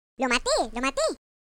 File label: Enemydown, voices es; Default es